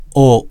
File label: "o", hiragana